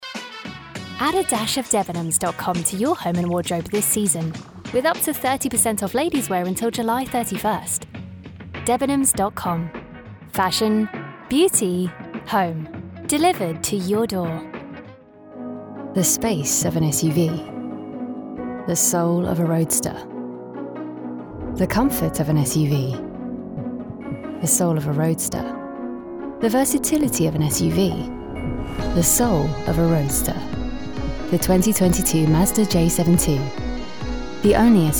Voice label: Commercial